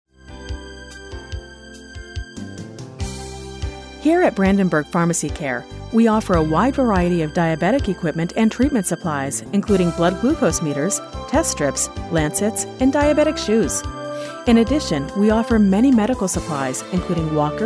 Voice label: FEMALE